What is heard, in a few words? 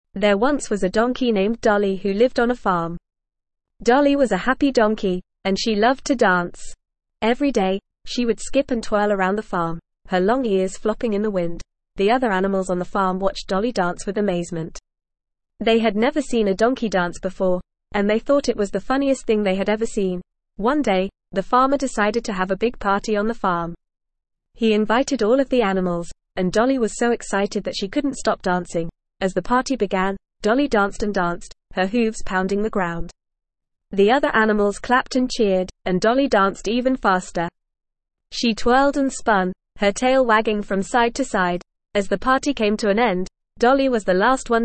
ESL Short Stories for Kids NORMAL reading; Dolly the Dancing Donkey